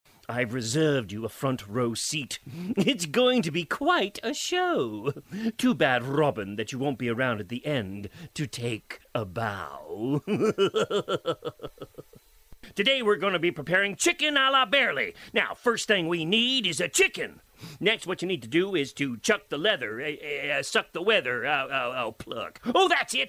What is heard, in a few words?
cartoon character